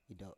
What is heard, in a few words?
"ido7", Biak